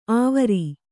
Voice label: "ಆವರಿ", Kannada

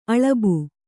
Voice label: "ಅಲಬು", Kannada

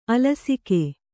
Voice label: "ಆಲಸಿಕೆ", Kannada

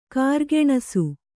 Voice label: "ಕಾರ್ಗೆಣಸು", Kannada

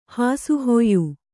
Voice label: "ಹಾಸುಹೊಯ್ಯು", Kannada

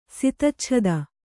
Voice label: "ಸಿತಚ್ಛದ", Kannada

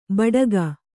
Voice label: "ಬೆಡಗು", kannada

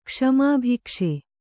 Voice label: "ಕ್ಷಮಾಭಿಕ್ಷೆ", Kannada

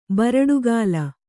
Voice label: "ಬರಡುಗಾಲ", Kannada

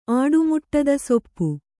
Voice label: "ಆಡುಮುಟ್ಟದಸೊಪ್ಪು", Kannada

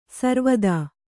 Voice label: "ಸಾರವತಿ", Kannada

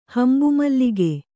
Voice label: "ಹಂಬುಮಲ್ಲಿಗೆ", Kannada

